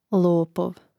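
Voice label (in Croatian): "lopov", Croatian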